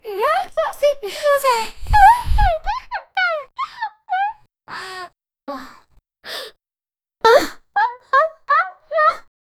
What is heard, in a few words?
cute girl neko anime jump; horror